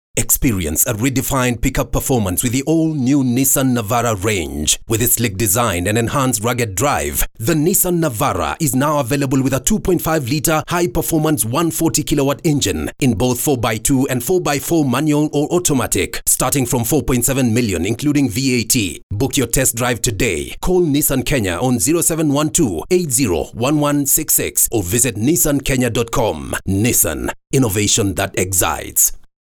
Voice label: english african automotive

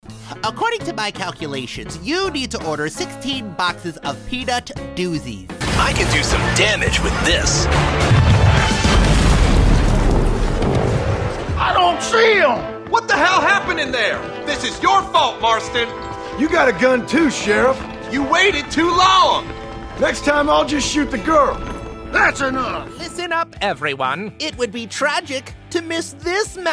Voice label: english american animation

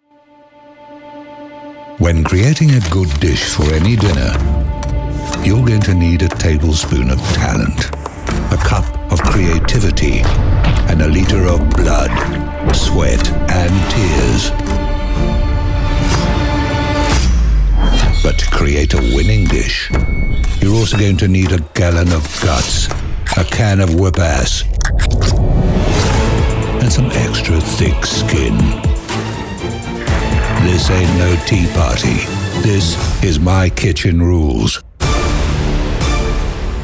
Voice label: english south african tv shows

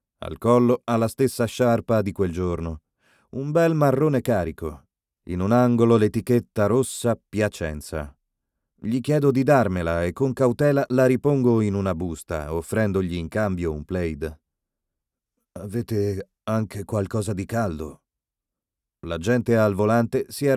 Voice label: italian audiobooks

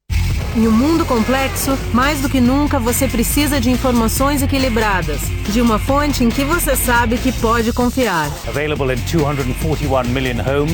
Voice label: portuguese brazilian promos

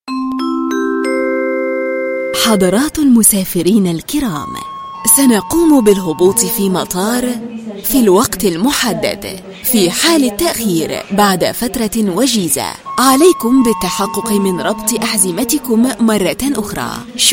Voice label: arabic others

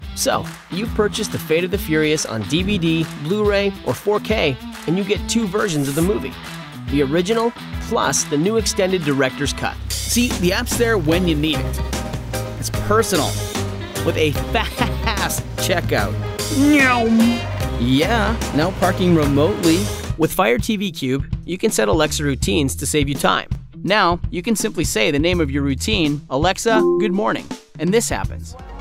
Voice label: english canadian explainer videos

